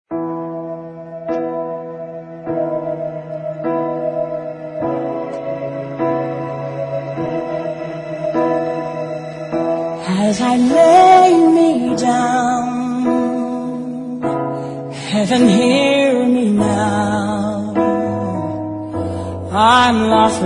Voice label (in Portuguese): Amor E Emocao - Voz Fem, Telemensagens